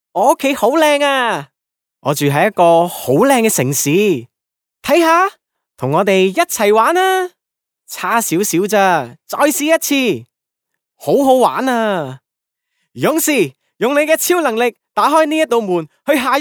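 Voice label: Cantonese male children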